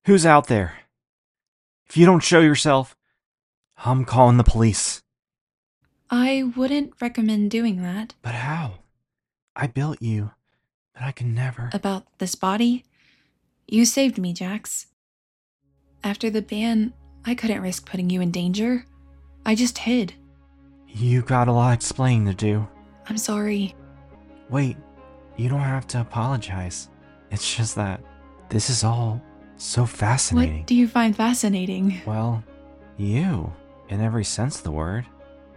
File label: Character Demo